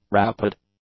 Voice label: "rapid", English; adjectives